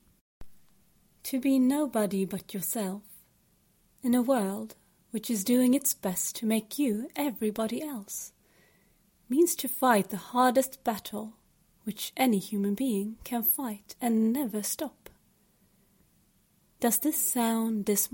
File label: English British